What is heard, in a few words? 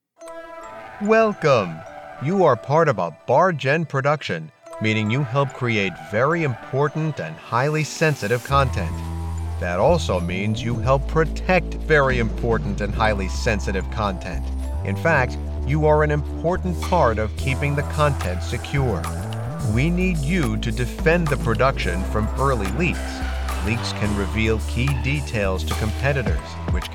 eLearning